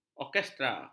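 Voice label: "orkestra", Malay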